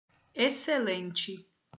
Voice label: "excelente", portuguese